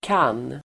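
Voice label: "kan", Swedish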